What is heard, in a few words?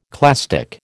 "clastic", American